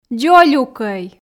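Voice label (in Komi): "дзолюкӧй", Komi, female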